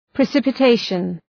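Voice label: "precipitation", English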